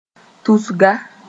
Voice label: "tusga", moore